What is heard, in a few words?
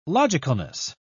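"logicalness", British English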